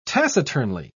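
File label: "taciturnly", British English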